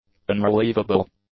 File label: "unrelievable", english; adjectives